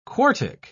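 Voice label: "quartic", British English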